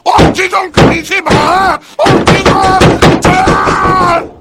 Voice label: heavy no